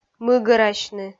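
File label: "мыгӧрасьны", Komi, female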